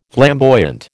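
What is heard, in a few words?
"flamboyant", American English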